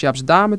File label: dutch assimilation